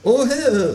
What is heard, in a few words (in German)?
kaenguru ohh hee